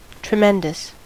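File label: "tremendous", American English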